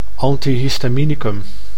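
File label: "antihystaminicum", Dutch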